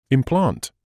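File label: "implant", British English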